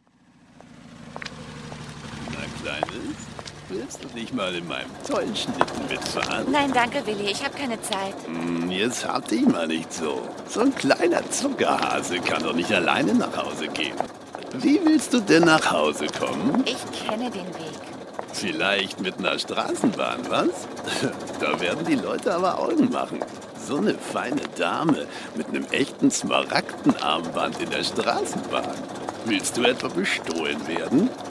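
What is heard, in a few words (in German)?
Hörspiel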